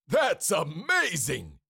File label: Cartoon lion voice; that is amazing